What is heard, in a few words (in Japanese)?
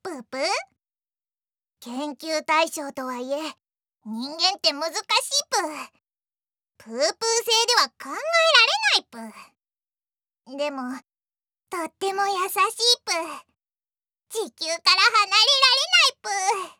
魔物